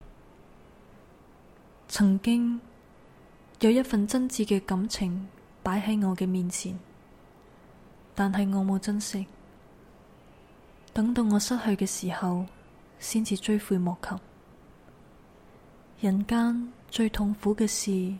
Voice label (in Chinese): 粤语台词